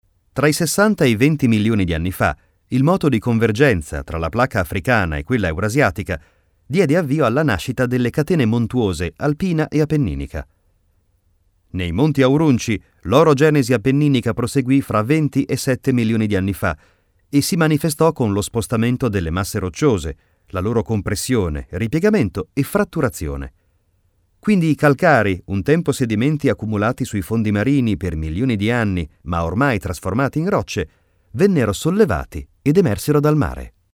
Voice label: Italian